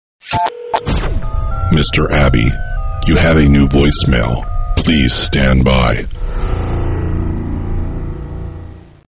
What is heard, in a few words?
voicemail